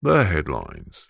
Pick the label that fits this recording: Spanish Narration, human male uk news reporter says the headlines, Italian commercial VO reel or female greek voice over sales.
human male uk news reporter says the headlines